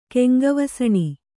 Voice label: "ಕೆಂಗವಸಣಿ", Kannada

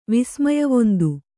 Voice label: "ವಿಸ್ಮಯವೊಂದು", Kannada

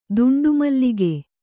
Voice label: "ದುಂಡುಮಲ್ಲಿಗೆ", Kannada

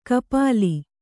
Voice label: "ಖಪಲಿ", Kannada

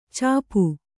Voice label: "ಚಾಪು", Kannada

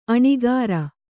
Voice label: "ಅನಕರ", Kannada

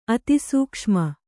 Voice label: "ಅತಿಸೂಕ್ಷ್ಮ", kannada

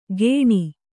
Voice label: "ಖೇಣಿ", Kannada

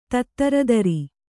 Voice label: "ತತ್ತರದರಿ", Kannada

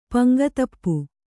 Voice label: "ಪಂಗತಪ್ಪು", Kannada

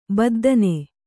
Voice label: "ಬದ್ದನೆ", Kannada

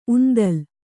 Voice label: "ಉಂದಲು", Kannada

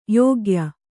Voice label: "ಯೋಗ್ಯೆ", Kannada

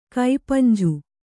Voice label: "ಕಪಿಂಜ", Kannada

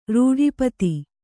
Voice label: "ರೂಢಿಪತಿ", Kannada

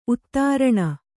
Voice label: "ಉತ್ತರಣೆ", Kannada

